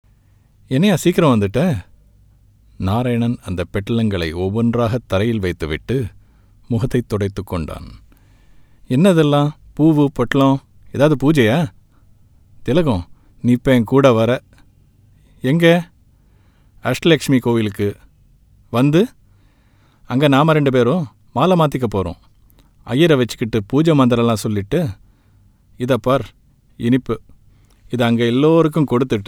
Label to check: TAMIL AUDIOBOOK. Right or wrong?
Right